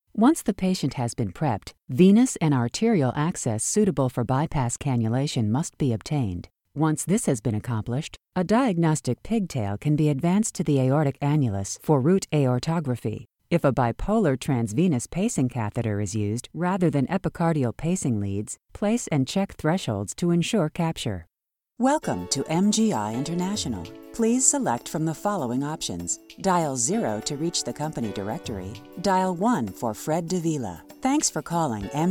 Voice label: eLearning